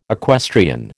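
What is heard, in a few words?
"equestrian", American English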